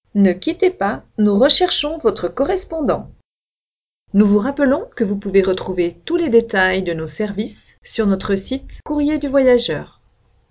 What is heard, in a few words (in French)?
FEMME